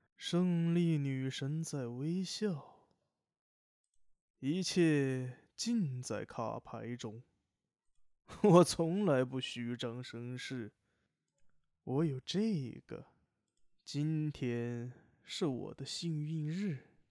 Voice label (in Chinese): 冷静自信角色